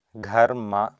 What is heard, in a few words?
"gharma", Sanskrit